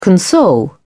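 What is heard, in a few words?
"console", English, British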